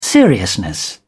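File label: "seriousness", British English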